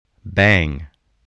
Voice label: "bang", English

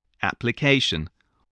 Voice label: "application", English

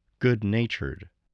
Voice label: "good-natured", spelling

